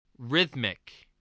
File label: "rhythmic", voice spelling